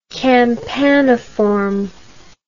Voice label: "campaniform", English